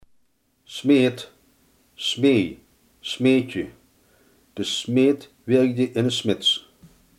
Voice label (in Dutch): "smid", Limburgs